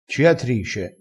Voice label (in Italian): "ciatrice", crespinese